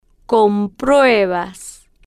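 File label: "com-prue-bas", Spanish, female, slow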